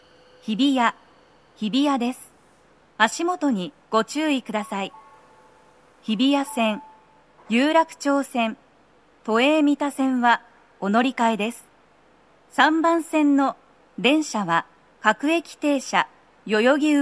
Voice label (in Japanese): 到着放送 女声, 各駅停車 代々木上原行; 3番線 代々木上原・唐木田・伊勢原方面 到着放送; 放送は「各駅停車 代々木上原行」です。; 足元注意喚起放送と、乗り換え放送が付帯する; tokyometro chiyodaline hibiya